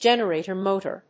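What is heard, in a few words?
"GENERATOR MOTOR", English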